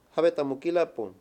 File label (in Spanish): "funeral", Mayo; Religón y creencias; INALI